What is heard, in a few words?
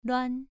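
"lüan", Mandarin Chinese syllable, second tone; pronunciation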